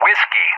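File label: "Whiskey", English; JTAC; Alphabet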